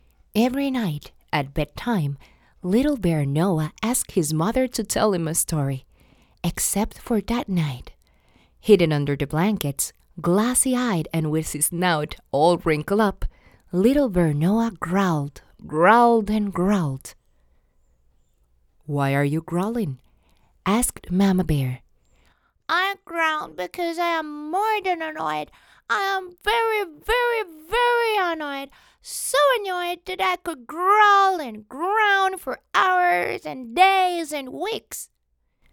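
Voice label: female, accented English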